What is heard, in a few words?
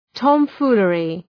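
"tomfoolery", English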